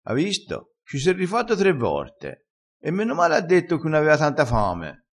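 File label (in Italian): "rifassi", vocabolario dialettale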